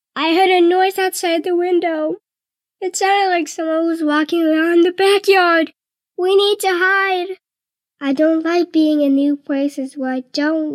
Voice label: Drama Scared

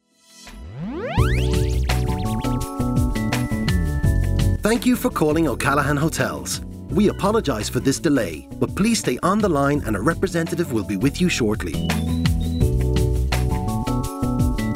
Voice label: on hold

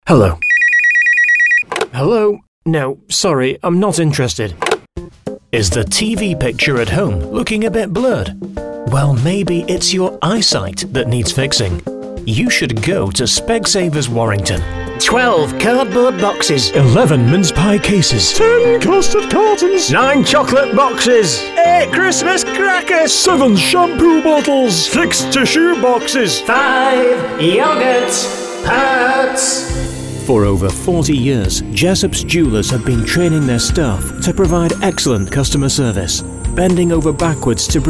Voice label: Commercial Showreel

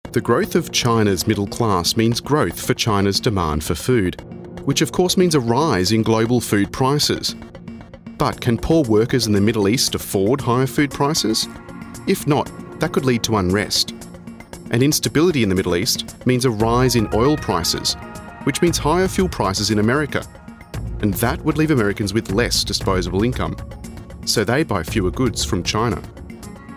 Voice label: narration mix

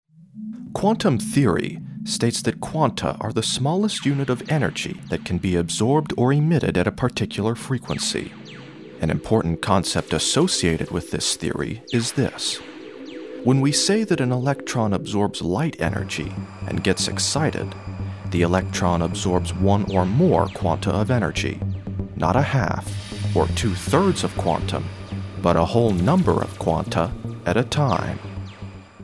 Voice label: Technical Narration

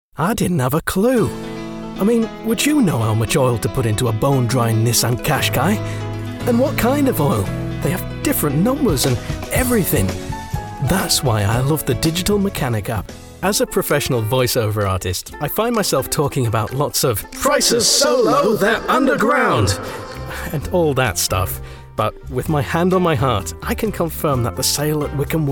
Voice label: Commercial, british

